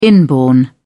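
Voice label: "inborn", British English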